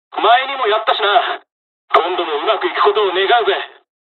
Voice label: dialog, dialogs japanese; us midway; War Thunder